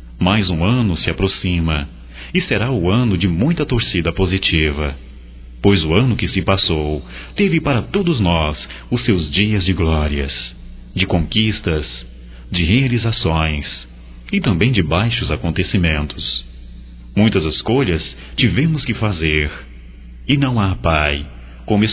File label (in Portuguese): Natal geral, masc